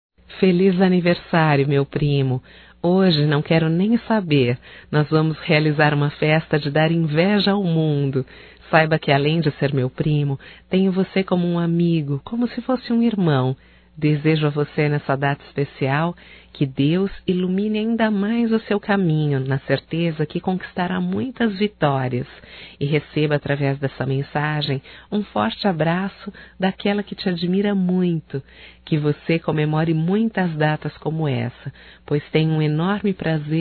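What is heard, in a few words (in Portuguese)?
female, Telemensagens Aniversarios; ANIV PRIMO(F)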